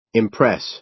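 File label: "impress", American English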